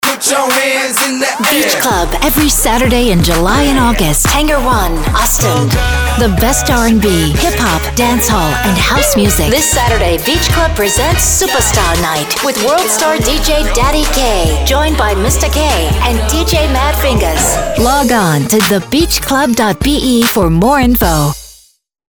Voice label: beach club this saturday party commercial

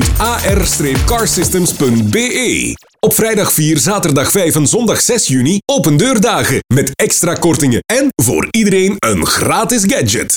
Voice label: car systems commercial

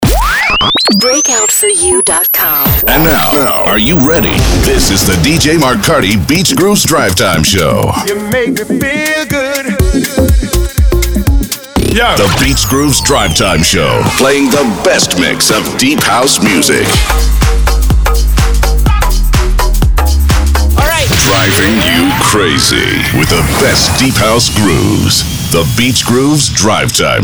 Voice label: drivetime imaging; beachgrooves

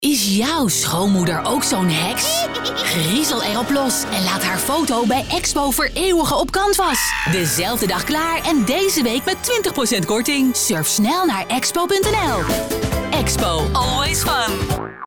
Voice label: nl commercial; expo heks surf

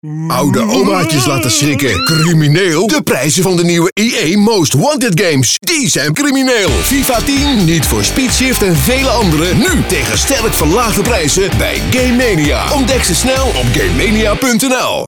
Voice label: nl commercial; ea most wanted game mania

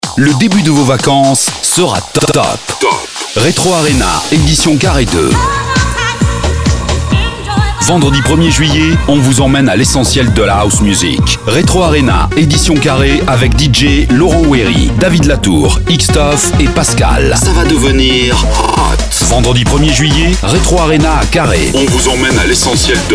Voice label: fr party commercial; retro arena carre

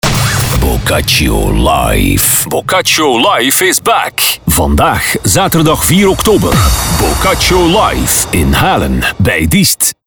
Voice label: party commercial; boccaccio life 4 oktober

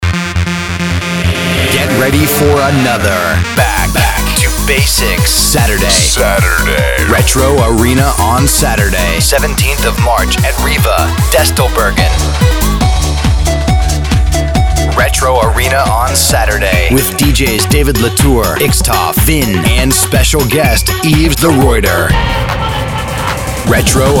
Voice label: party commercial; retro arena riva

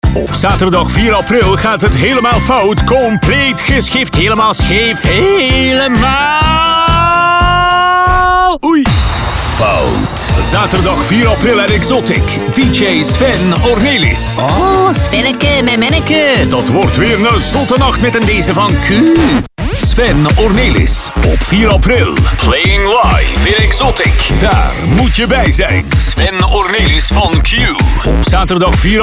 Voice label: party commercial, radiospot; x otic

